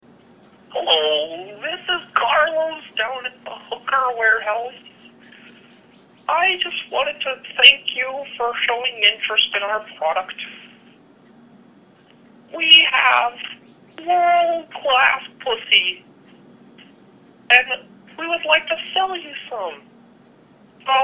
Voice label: voicemail